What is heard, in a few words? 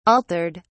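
"altered", British English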